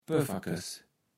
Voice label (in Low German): "Pöfferkes", Platt